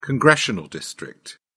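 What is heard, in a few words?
"congressional district", British English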